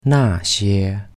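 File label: "那些", Chinese; those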